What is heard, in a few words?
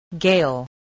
"gale", voice